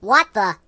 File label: "What the", Mobster; hedgewars